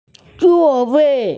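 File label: "seat", Mandarin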